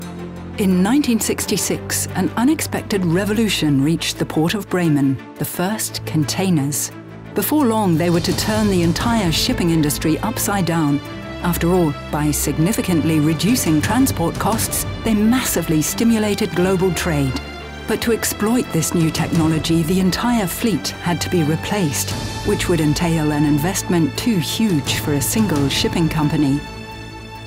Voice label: doco shipping; Muttersprache Englisch

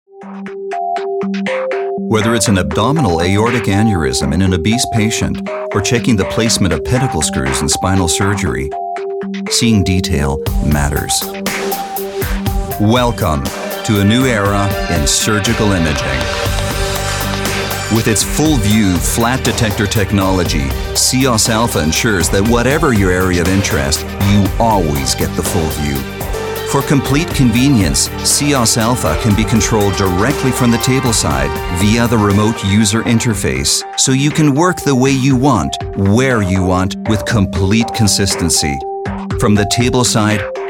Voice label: webfilm english; siemens

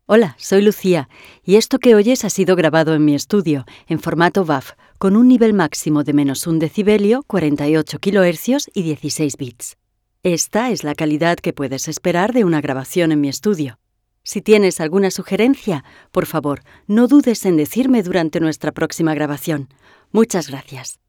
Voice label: homestudio brilliant voice

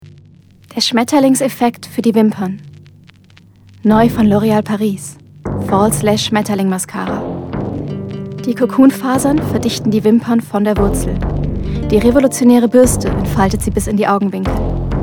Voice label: commercial, de; Muttersprache Deutsch; loreal paris 2015; Sprecher in London, Köln

